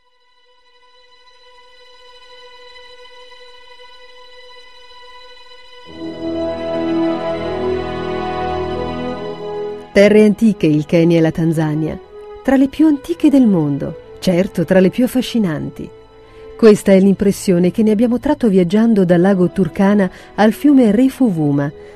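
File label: Italian, female, narration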